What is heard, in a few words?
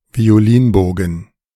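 "Violinbogen", German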